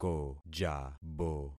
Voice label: "kojabo", concat syllables; Affixing experiment

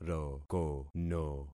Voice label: "rokono", concat syllables; Affixing experiment

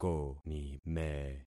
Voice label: "konime", concat syllables; Affixing experiment

